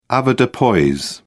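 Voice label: "avoirdupois", British English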